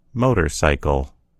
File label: "motorcycle", en US; gcompris